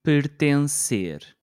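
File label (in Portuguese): "pertencer", português europeu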